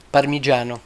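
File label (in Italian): "parmigiano", Italian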